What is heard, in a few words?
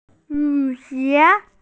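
"yu3xie2", Mandarin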